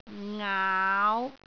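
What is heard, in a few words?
"ngaau5", Cantonese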